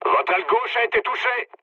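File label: French left wing mood low; War Thunder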